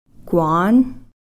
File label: "kwaan", Cow Creek